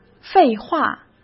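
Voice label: "废话", Mandarin Chinese; chinese-flashcards; hsk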